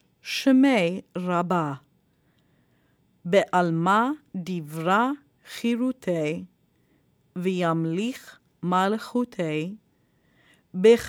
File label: kaddish yatom read